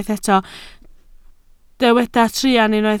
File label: "truan", Welsh